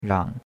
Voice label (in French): "rang3", chinois; Cours de Chinois